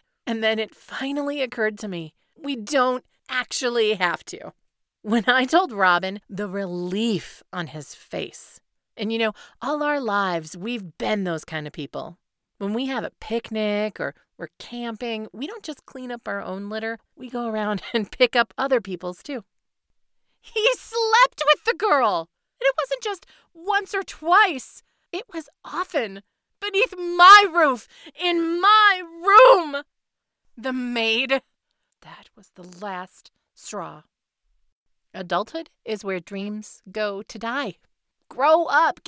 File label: Audio Drama